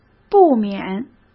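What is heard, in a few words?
"不免", Mandarin Chinese; hsk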